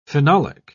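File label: "phenolic", British English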